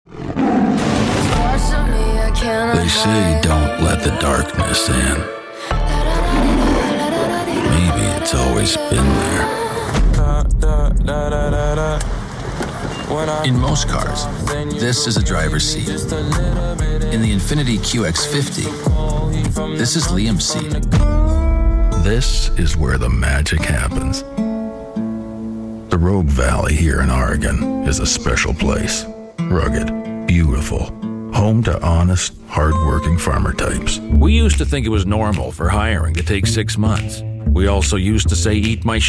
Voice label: english canadian commercial, comical voice actors; actor de doblaje norteamericano profesional; Inglés (Cánada)